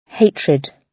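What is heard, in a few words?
"hatred", British English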